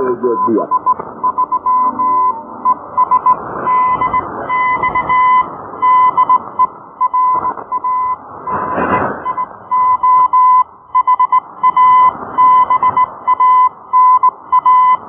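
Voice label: Radio Habana Cuba 11760 kHz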